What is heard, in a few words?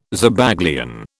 "Zabaglione", British